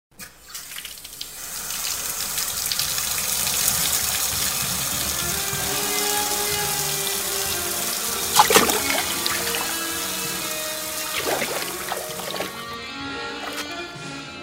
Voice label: turkish commercial